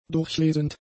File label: "durchlesend", german; adjectives